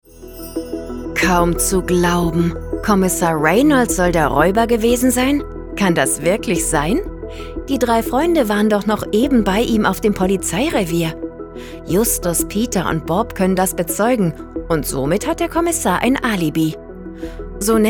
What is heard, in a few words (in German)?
Hoerspiel Moderatorin; DFK mini